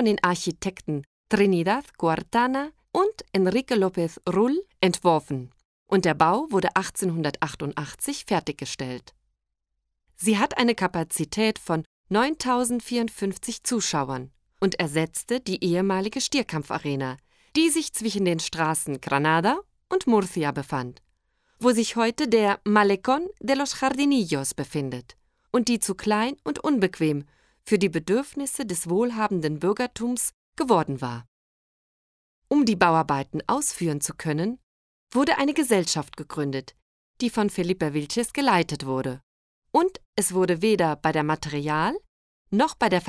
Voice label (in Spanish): AUDIOGUIA ALMERIA ALEMAN plaza de toros